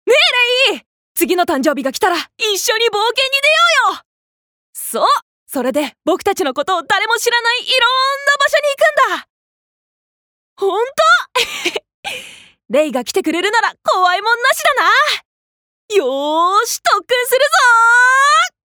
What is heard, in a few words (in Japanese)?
アニメ